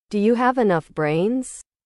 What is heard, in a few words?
english